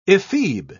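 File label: "ephebe", English, British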